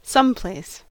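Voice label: "someplace", US English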